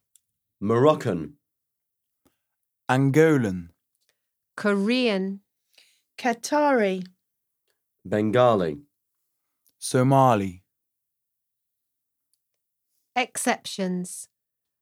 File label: Speak Accentuatio